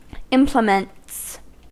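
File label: "implements", US English; pronunciations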